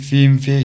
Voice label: "fimfe", Proto-Germanic